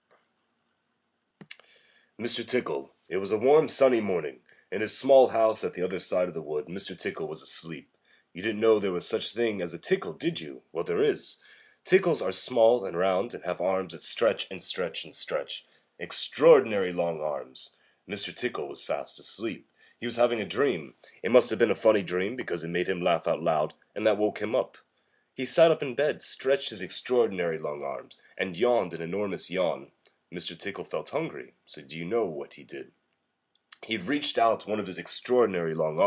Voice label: Tampa Florida male